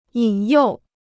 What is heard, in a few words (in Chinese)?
"引诱", Chinese